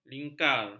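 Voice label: "lingkar", Malay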